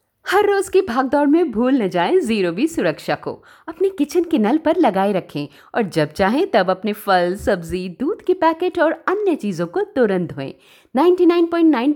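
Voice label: hindi corporate videos, Weiblich; India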